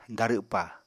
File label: "darwpa", Apatani